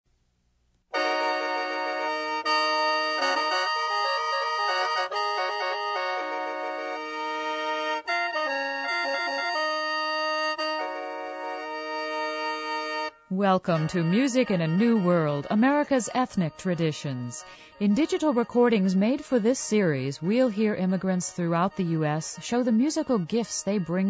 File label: Albanian; mono